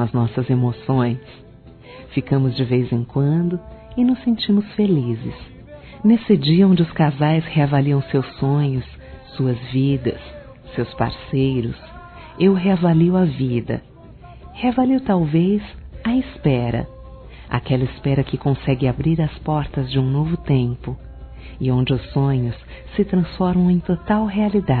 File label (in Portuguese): Telemensagens, Dia Dos namorados, fem; alguém que curto; Telemensagens 2017